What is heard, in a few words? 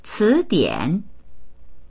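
"ci2dian3", Mandarin Chinese; 词典; 辞典; dictionary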